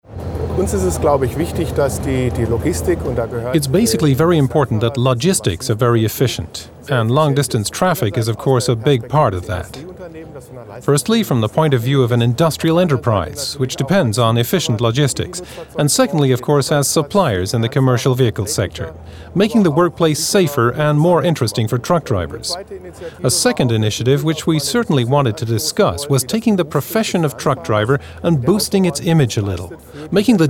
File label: Englisch neutral Voice Over; ZF